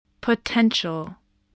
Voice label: "potential", English

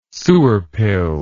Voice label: "sewer pill", English

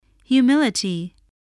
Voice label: "humility", voice spelling

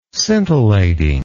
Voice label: "scintillating", English

